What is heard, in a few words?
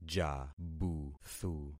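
"jabuthu", concatenated syllables; Affixing experiment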